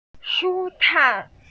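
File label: "shu1 cai4", Mandarin Chinese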